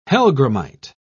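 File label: "hellgrammite", British English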